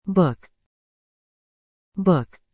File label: "book", English